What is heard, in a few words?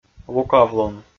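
"лукавлун", Komi, male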